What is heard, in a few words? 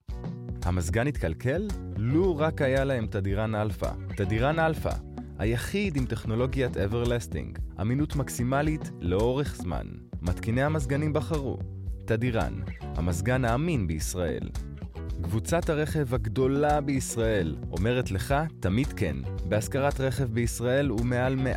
hebrew commercial